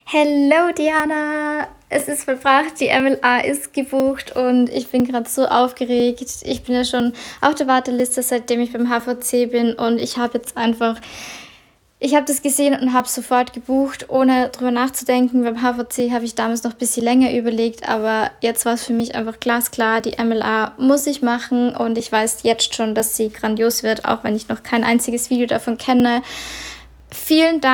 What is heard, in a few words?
Voice Feedback